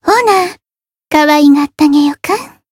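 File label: Voice encounter Japanese; hoozuki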